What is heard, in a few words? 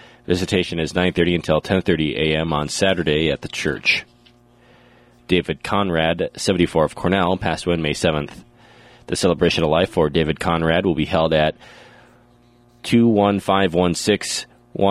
Funeral Announcements